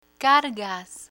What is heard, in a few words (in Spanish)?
"cargas", Spanish; real estate terminology; learn spanish